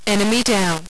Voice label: enemy, female, radio

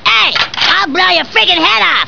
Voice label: blow, radio; lmctf

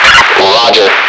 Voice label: male roger; lmctf

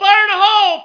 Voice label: fire hole, yell

